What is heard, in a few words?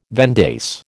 "vendace", American